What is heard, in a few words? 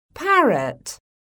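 "parrot", English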